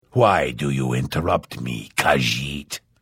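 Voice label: Hello, Vo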